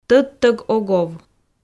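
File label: "тӧдтӧг ог ов", Komi, female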